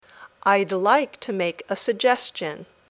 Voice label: Dictation